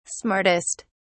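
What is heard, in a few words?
"smartest", English, British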